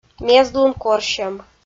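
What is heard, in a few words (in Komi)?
"мездлун корсьӧм", Komi-Zyrian, female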